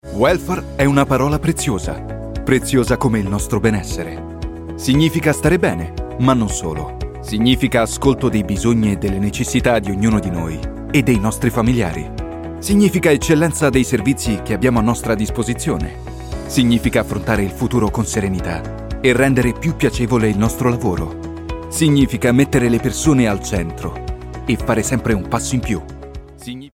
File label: male italian comercial; ideasonora